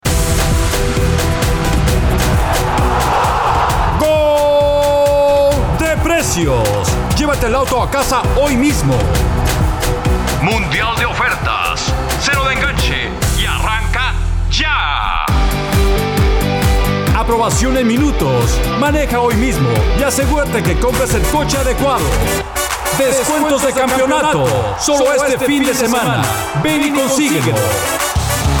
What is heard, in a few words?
Male